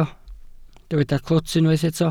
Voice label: "clwt", Welsh